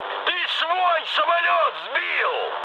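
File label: player killed ally, mood high, Russian; War Thunder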